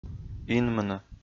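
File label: "инмыны", Komi, male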